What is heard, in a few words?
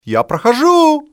"coming thru", male; XBOX; civ